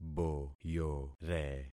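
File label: "boyore", concatenated syllables; Affixing experiment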